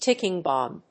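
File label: "ticking bomb", English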